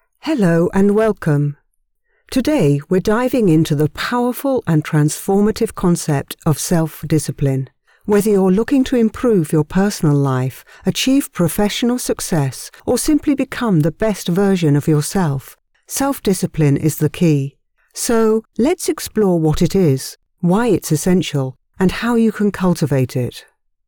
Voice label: Self Discipline, E-Learning, British, Female Voice Over; Self Discipline Module